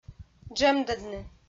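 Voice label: "джӧмдӧдны", Komi, female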